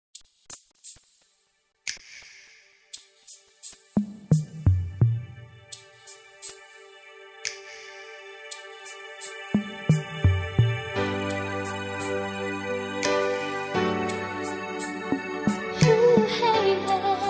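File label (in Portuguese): Evangélica Aniversário de Casamento, female, telemensagens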